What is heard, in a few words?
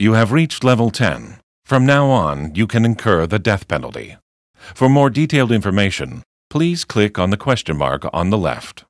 tutorial voice; lineage2